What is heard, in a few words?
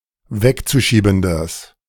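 "wegzuschiebendes", German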